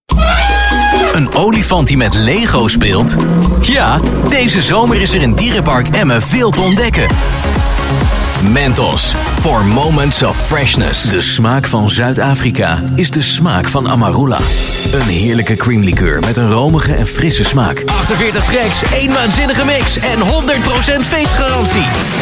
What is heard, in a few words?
Flemish Commercials, Flemish Male